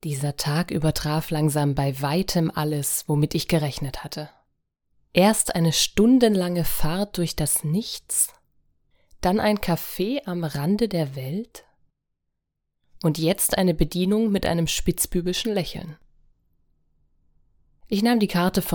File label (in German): Hörbuch